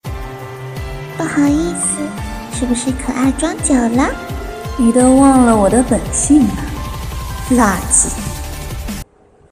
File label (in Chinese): 萝莉音 少御音 转换